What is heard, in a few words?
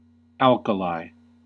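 "alkali", US English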